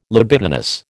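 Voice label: "libidinous", American English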